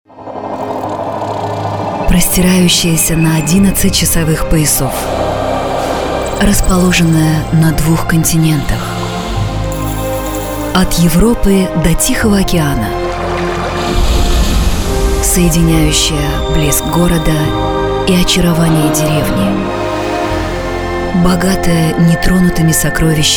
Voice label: commercial

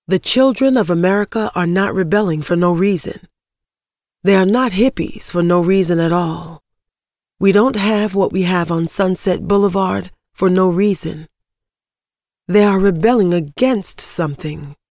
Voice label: woman american

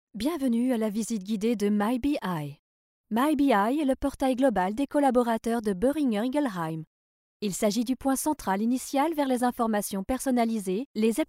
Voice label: French

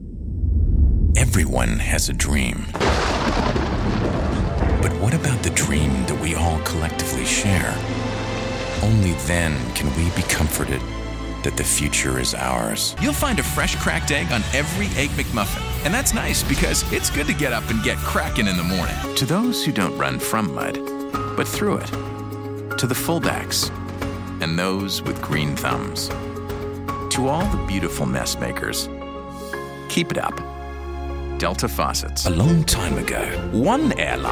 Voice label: man american

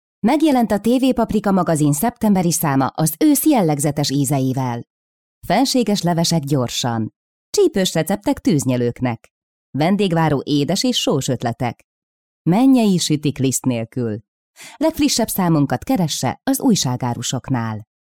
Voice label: hungarian

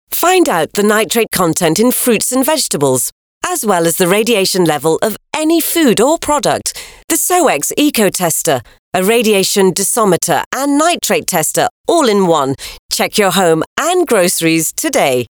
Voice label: woman british

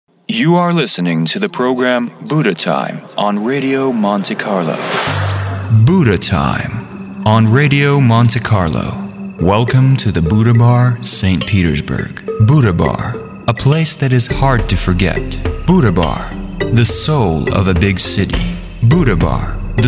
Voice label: English voice

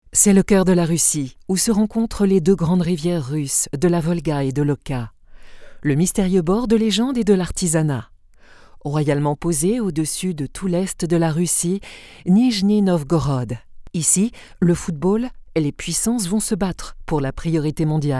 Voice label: French

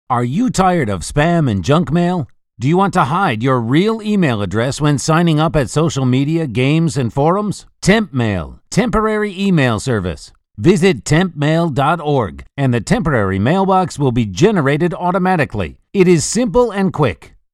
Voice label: american

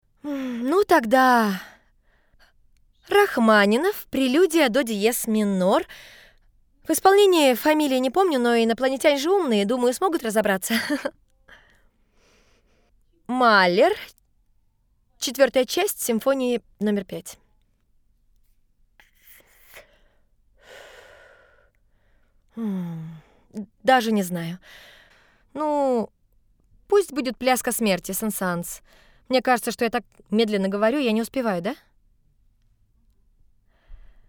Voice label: dubbing

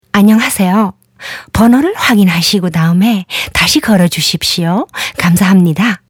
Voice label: Female

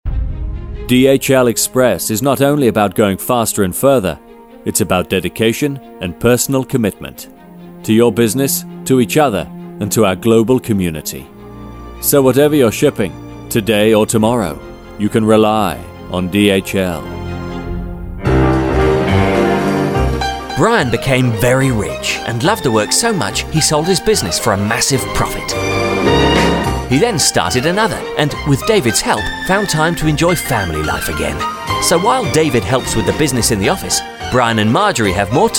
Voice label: man british

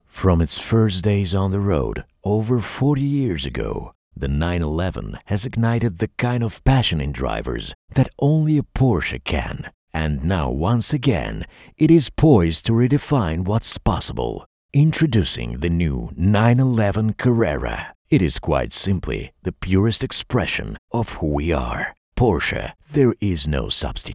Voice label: American accent

